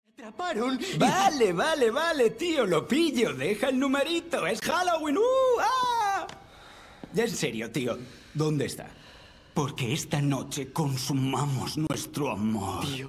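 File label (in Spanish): doblaje España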